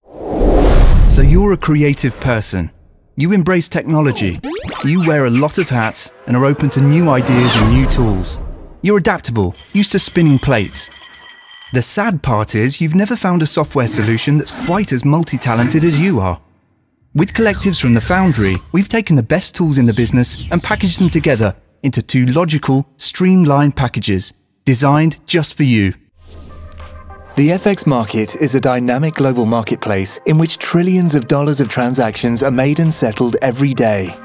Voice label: Corporate voice